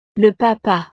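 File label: "le papa", French; familia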